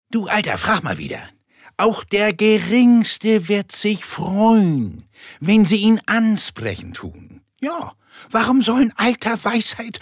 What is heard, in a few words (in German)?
Dialekt Norddeutsch